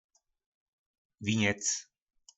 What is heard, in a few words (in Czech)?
"Viněc", nářečí Po našimu; Věnec